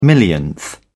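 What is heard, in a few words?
"millionth", British English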